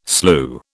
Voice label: "slue", British